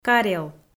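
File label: "карел", Komi, female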